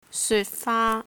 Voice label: "syut3 faa1", cantonese

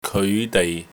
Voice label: "keoi5 dei6", cantonese

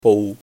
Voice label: "bou1", Cantonese

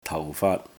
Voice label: "tau4 faat3", cantonese

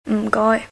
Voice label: "m4 goi1", cantonese

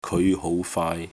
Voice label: "keoi5 hou2 faai3", cantonese

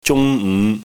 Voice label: "zung1 ng5", cantonese

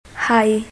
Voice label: "hai6", Cantonese

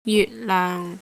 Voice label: "jyut6 loeng6", cantonese

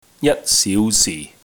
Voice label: "jat1 siu2 si4", cantonese

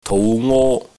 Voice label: "tou5 ngo1", cantonese

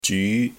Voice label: "zyu2", Cantonese

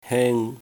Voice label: "heng1", cantonese

